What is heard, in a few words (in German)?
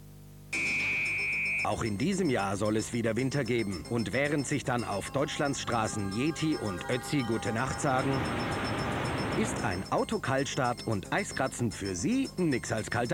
Werbung Heizung